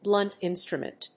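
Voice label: "blunt instrument", English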